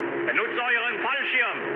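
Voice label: German bailout mood med, speech; War Thunder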